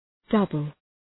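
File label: "double", English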